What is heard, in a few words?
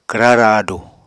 "kwra raadu", Apatani